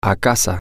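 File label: Spanish, to home, male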